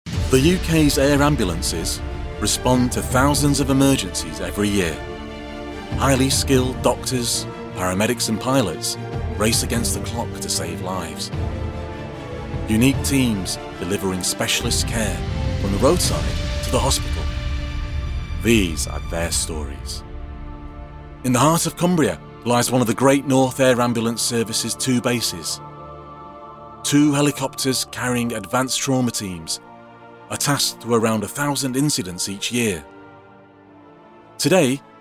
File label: documentary